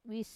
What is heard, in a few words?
"wis", mountain, wamesa